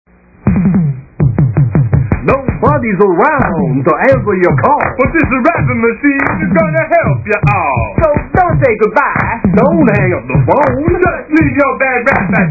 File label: Rap Master Machine, answering machine; At the sound of the beep